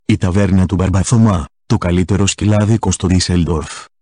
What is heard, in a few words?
taverna male